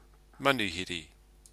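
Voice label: "manuhiri", Māori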